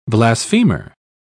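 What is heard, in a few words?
"blasphemer", British English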